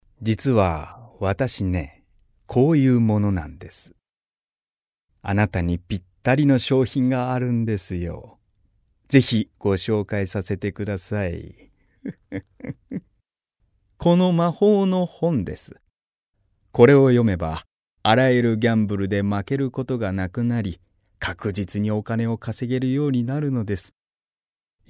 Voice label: male voice; セリフ